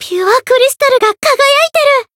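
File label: growth, Japanese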